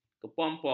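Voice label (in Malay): "kepompong", Malay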